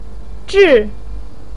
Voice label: "zhì", voice pinyin